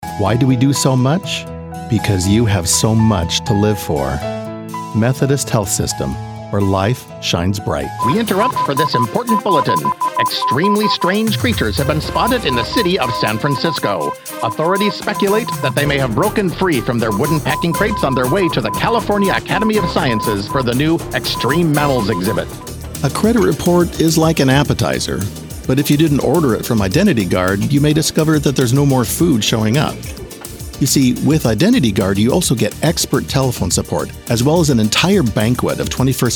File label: Commercial